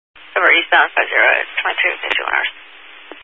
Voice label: Highway Patrol, Phoenix Metro East Area, scanner